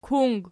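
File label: "kung", nominative, Archi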